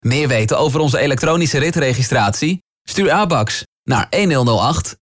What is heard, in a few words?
Commercials